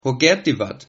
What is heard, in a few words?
how are you, Sylt Frisian